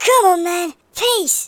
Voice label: revenge, speech, Hippy